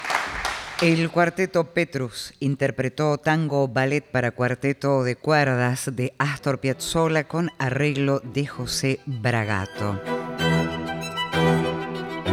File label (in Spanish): Locutora